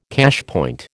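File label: "cashpoint", American English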